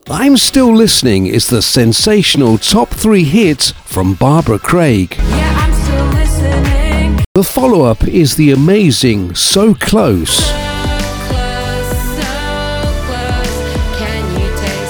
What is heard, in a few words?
Radio Commercial